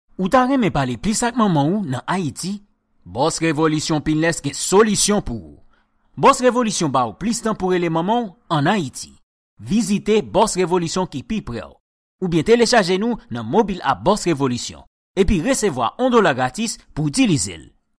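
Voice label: Haitian Creole male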